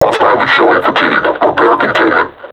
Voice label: taunt, vo combine suppressor; Half Life Alyx